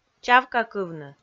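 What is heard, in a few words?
"чавкакывны", Komi, female; kpv audio dict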